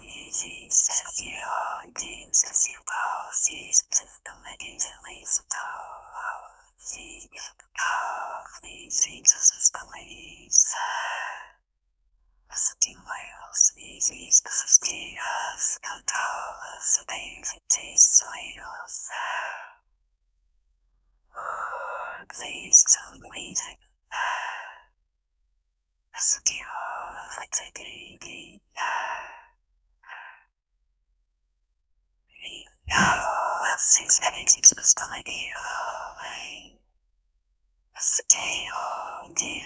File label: female whisper illegible, ancient language